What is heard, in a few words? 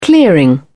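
"clearing", English, uk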